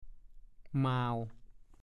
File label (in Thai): "หม่าว", ภาษาโคราช; เสียงของแมวโพง แมวโพง